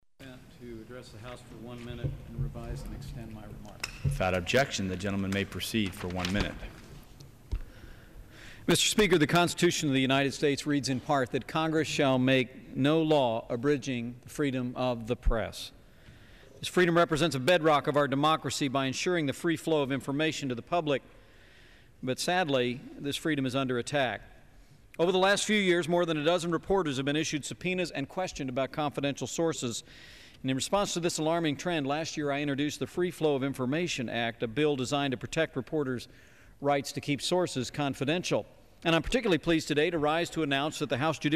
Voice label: Floor Speech